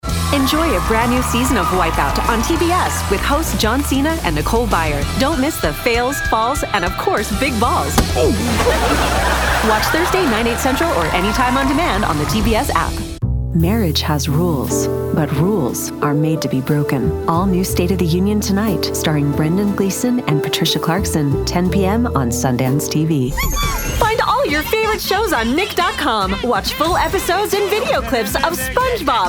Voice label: Promo

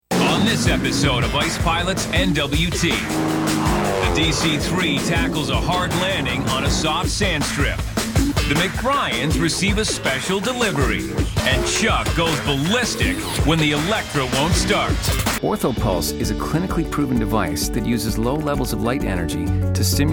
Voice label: Narration

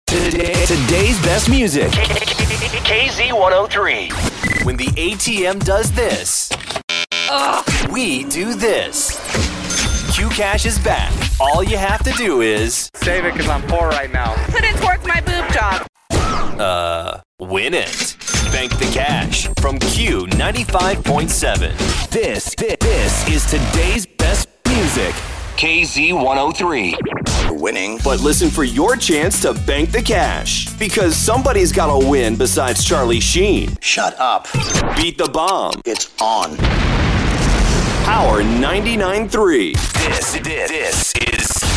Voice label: Imaging

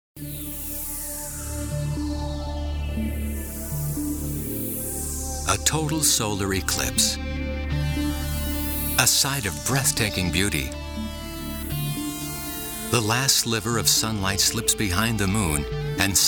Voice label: narr

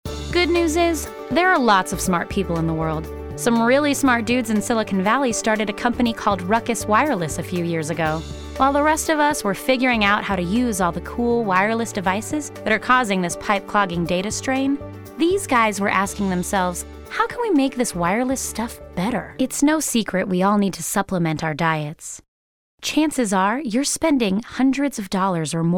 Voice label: Narr

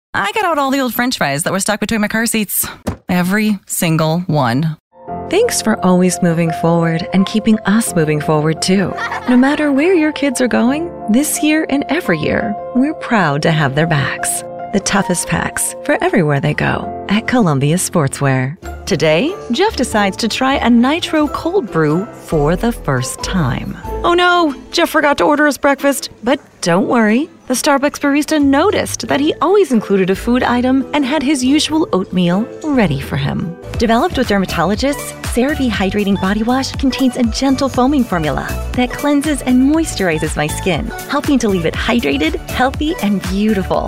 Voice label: Commercial

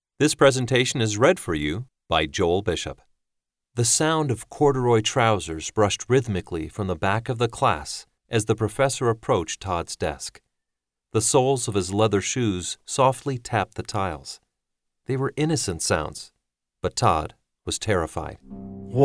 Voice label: Audio Book VO

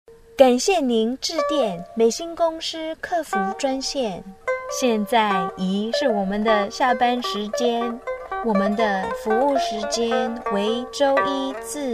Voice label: Chinese

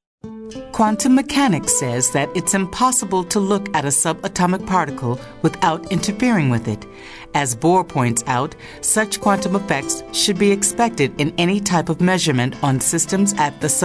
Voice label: narr

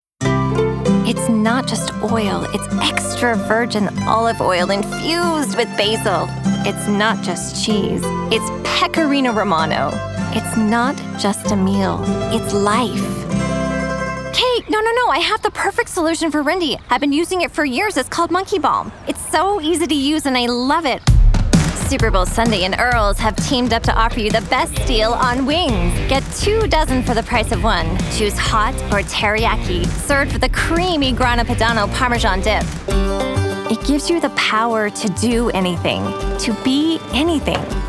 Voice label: Commercial

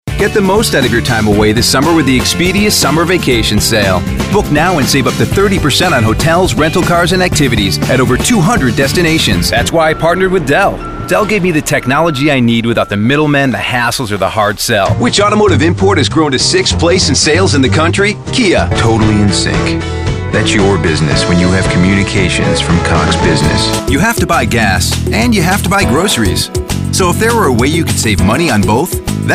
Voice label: Commercial

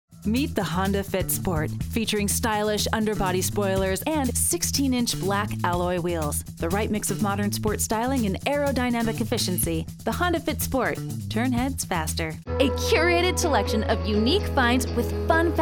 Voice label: Commercial

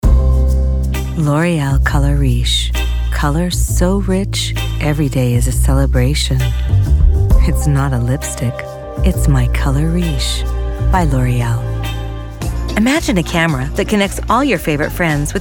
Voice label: Commercial

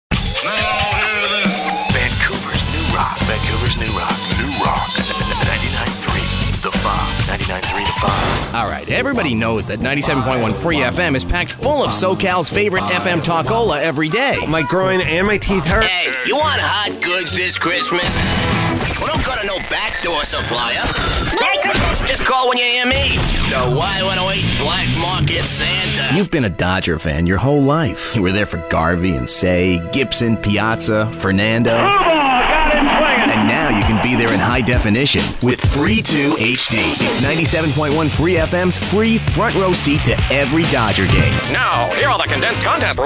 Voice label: promo

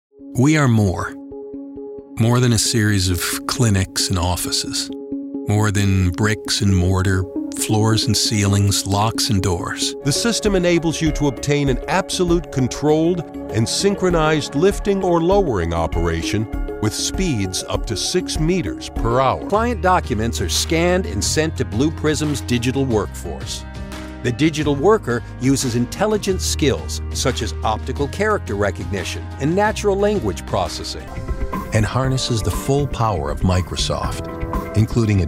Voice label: Corporate

